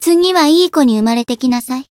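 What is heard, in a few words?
Voice win, Japanese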